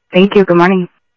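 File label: Sheriff East County, scanner; SoutheastCenMesa; MCSO - EAST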